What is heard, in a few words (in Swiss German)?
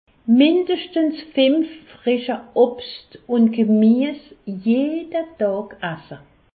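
"obst", Alsatian; medecine; manger au moins cinq fruits frais et légumes chaque jour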